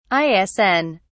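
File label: "isn", British English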